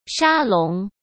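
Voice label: "沙龙", Mandarin Chinese; chinese flashcards; hsk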